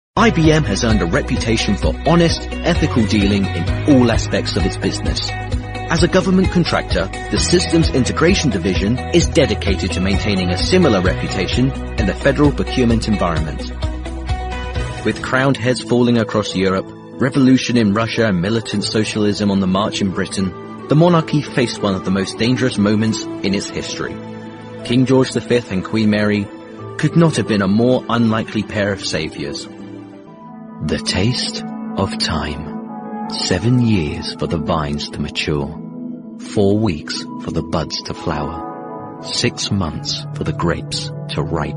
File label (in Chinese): 英式男声